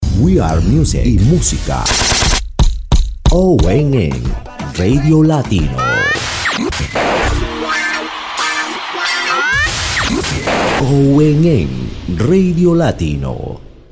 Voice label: radio latino drop

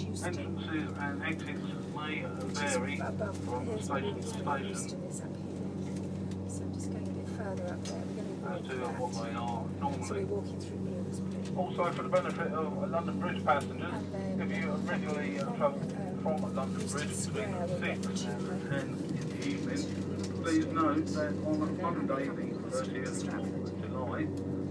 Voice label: olympic train announcement

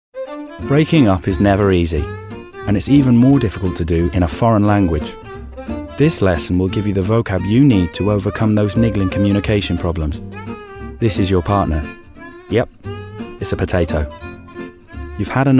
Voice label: advert; babbel

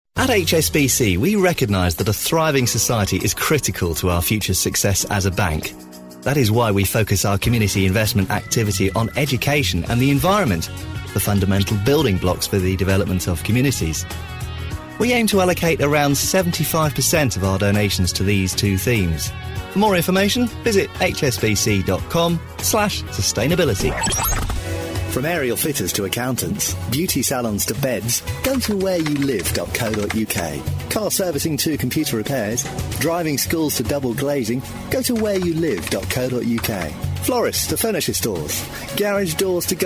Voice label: voice over

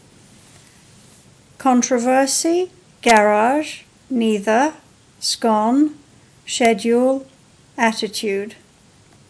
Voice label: Geneva Switzerland female

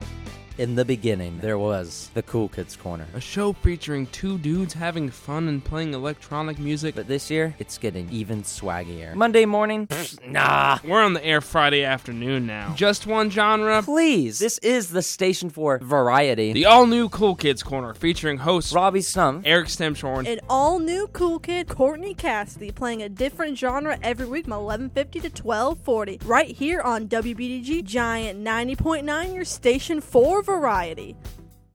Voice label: promo; cool kids corner

